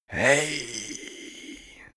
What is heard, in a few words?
male creepy hey